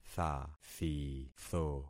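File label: "thathitho", concatenated syllables; Affixing experiment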